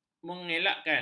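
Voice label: "mengelakkan", Malay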